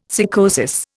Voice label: "sycosis", Indian

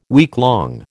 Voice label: "weeklong", American

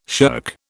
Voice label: "shirk", British

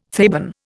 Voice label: "Theban", Indian

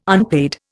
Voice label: "unpaid", Indian

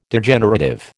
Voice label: "Degenerative", American

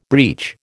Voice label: "breach", American

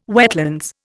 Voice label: "wetlands", Indian

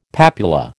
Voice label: "papula", American

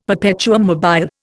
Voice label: "perpetuum mobile", Indian; Dictionary

